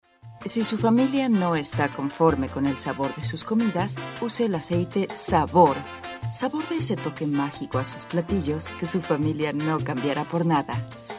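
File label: Spanish Latin America female sales; spanish americas voice over talent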